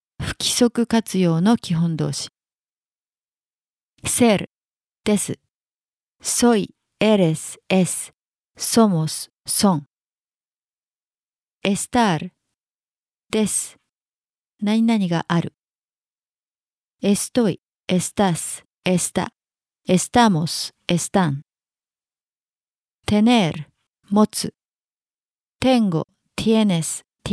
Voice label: Spanish basic word; basic spanish 2019